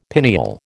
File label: "pineal", American